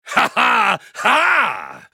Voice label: male human start